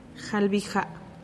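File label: "jalbija", Teotitlán del Valle Zapotec; twenty-nine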